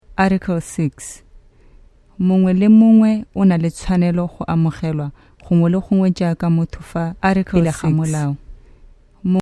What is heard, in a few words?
Tsewana